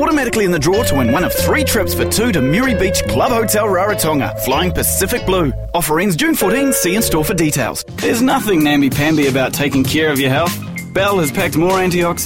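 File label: English New Zealand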